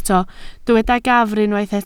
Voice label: "gafr", Welsh